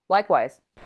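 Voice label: Voice Acting Spanish Female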